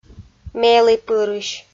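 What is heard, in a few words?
"меліпырысь", Komi, female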